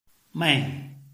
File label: "mei4", Chinese